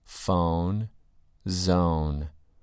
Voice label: "phone" "zone"